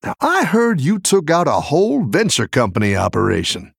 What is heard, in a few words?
Male Human Idle; Scabbs